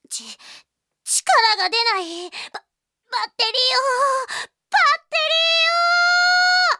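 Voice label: Japanese anime girl voice sounds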